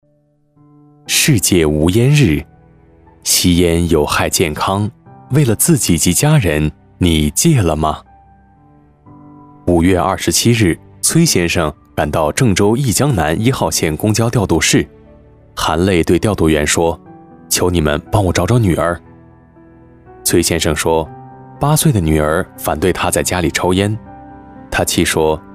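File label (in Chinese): 男【旁白】; 世界无烟日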